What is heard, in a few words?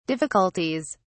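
"difficulties", en, British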